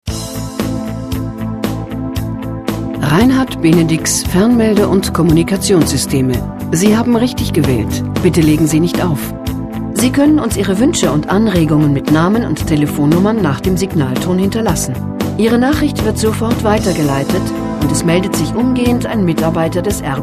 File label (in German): anrufbeantworter; benedix